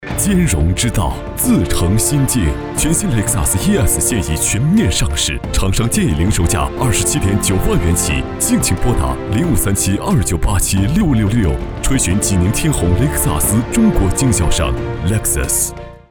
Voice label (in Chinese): 品牌广告; 雷克萨斯